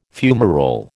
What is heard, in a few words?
"fumarole", American